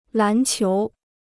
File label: "篮球", Chinese